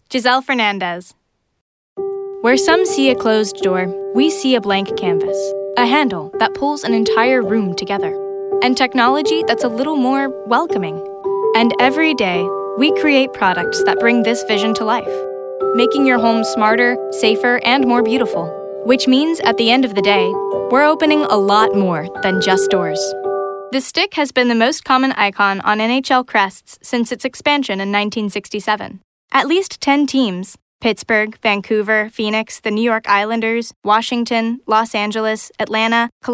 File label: narration, women